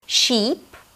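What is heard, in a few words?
"Sheep", American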